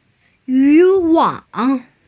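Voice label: "fishing net", female; yu2wang3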